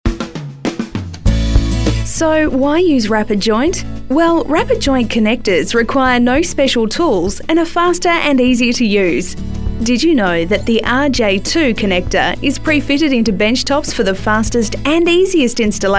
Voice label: voice over